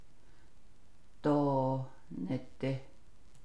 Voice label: navajo; not even not so much as

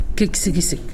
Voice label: "little finger", Pingelapese

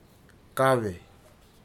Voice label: tentacle kave, futuna aniwa

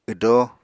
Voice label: "wdo", Apatani

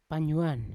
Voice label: "panyuan" strong, Yawa

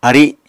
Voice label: "ari", Apatani

